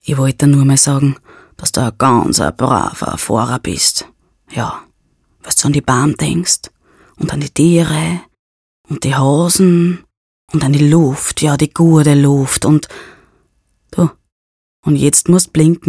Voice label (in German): Werbung